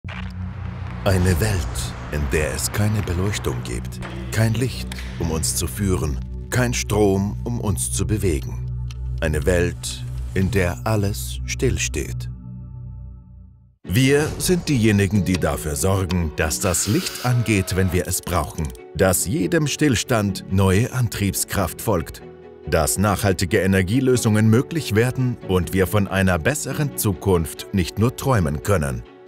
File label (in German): Imagefilm